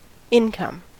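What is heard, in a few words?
"income", American English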